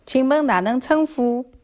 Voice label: name shanghainese